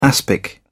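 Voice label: "aspic", English, British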